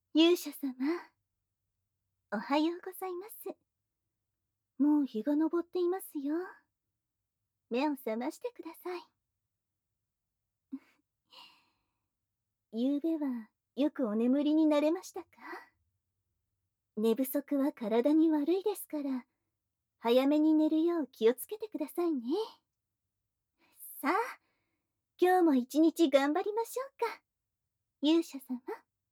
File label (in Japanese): 目覚ましボイス; ミリアンナ